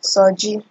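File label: "soji", Nigerian Pidgin, female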